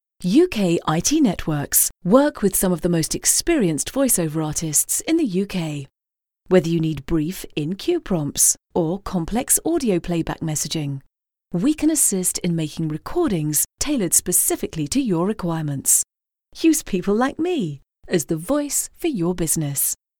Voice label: female voice